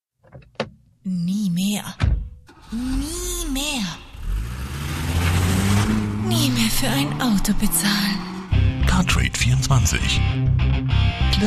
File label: radio spot